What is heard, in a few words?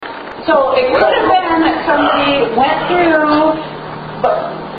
strange melody voice Im Archie